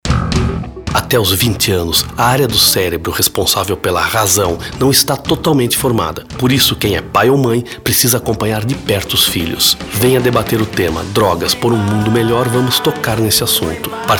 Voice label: SPOT